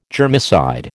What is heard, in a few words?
"germicide", American